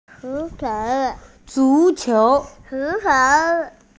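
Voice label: "zu2qiu2" Mandarin Chinese, female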